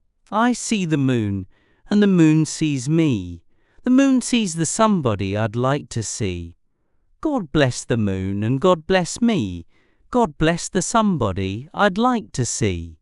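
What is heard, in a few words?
male British, classic rhymes; I See the Moon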